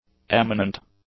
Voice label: "eminent", English; adjectives